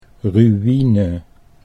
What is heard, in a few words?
"ru ine", Dutch